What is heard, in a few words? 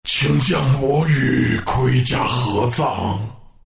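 death, Chinese; dota2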